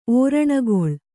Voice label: "ಓರಣಗೊಳ್", Kannada

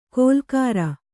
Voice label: "ಗೋಲಾಕಾರ", Kannada

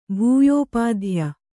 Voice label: "ಭೂಯೋಪಾಧ್ಯ", Kannada

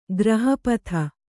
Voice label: "ಗ್ರಹಪಥ", Kannada

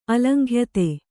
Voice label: "ಅಲಂಘ್ಯತೆ", Kannada

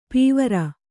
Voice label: "ಪೀವರ", Kannada; pīvara; fat and fleshy; stout; corpulent; bulky

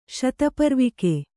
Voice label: "ಶತಪರ್ವಿಕೆ", Kannada

